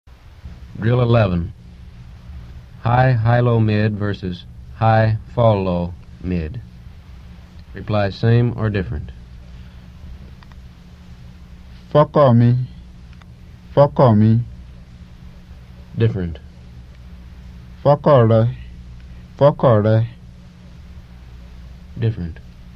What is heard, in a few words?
Yoruba; FSI; Basic